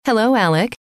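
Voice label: "hello", female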